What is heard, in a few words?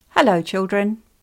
hello children, Korero; Korero books